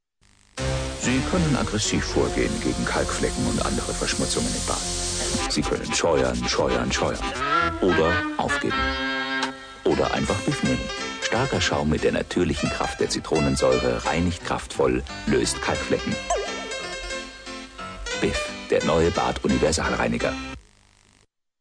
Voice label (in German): werbung; biff